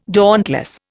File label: "dauntless", Indian